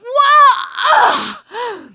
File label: charger running with player, survivor voice; producer